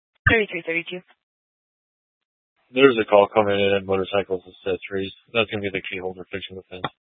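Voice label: Police Dispatch, Scanner audio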